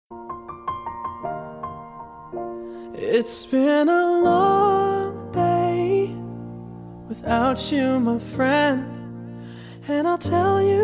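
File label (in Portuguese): ROMANTICA para ESPOSO female, telemensagem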